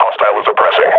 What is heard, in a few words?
under attack, combine grunt